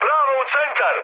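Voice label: Serbian bomb success mood med, speech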